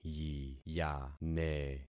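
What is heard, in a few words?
"yiyane", concatenated syllables; Affixing experiment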